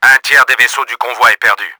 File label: THIRD DOWN, french; Battle Engine Aquila; XBOX